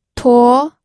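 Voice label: "tuo2", Mandarin Chinese, pinyin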